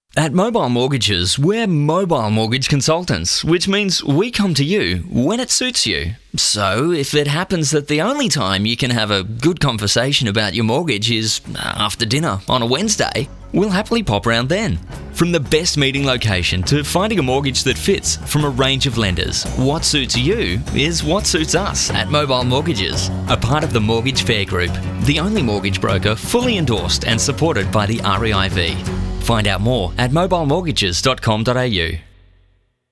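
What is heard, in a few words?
Conversational, male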